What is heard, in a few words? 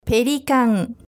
"pelican", Japanese